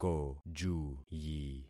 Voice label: "kojuyi", concat syllables; Affixing experiment